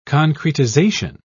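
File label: "concretization", British English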